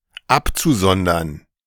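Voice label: German "abzusondern"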